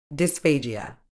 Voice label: "dysphagia", uk

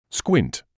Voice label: "squint", English

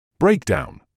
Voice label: "breakdown", medical english uk

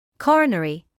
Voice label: "coronary", medical english

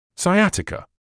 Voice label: "sciatica", English

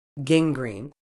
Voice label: "gangrene", medical English

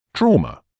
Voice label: "trauma", medical English

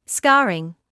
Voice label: "scarring", medical English

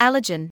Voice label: "allergen", English

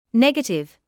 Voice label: "negative", medical English

